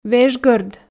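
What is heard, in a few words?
"вежгӧрд", Komi, female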